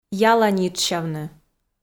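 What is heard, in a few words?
"яланитшсявны", Komi, female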